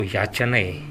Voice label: "yachanae", minamiyamagata

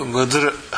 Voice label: "muzuru", minamiyamagata

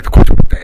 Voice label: "kochobitae", minamiyamagata

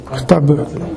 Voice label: "futabu", minamiyamagata

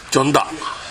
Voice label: "jonda", minamiyamagata

